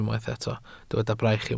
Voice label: "braich", Welsh